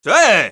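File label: yes, Spanish; Scout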